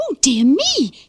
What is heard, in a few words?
"oh dear me", expletives, g-rated swears